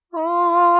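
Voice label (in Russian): "фа", Russian; bukvar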